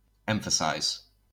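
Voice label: "emphasize", US English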